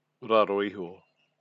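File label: "raho iho", Māori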